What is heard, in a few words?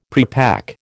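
"prepack", American English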